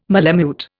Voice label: "malemute", Indian